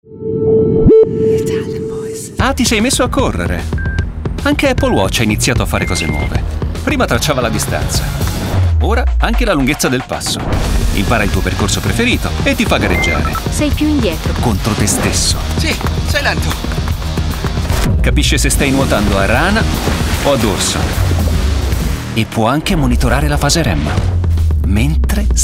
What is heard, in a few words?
ITALIAN VOICES Recent Commercials, male voices